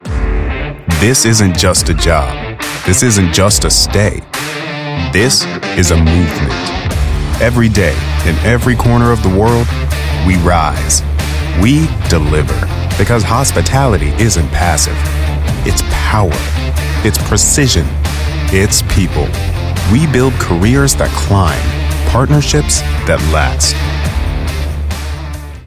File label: Ad Gritty, Confident, Driven Balanced Medium; Gritty, Confident, Driven, Athletic; LANDR; Equinox